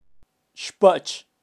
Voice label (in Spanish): "xpach", kaqchikel, pronunciacion de animales